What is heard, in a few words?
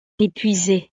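"epuisee", French; estados animo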